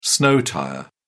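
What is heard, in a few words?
"snow tyre", British English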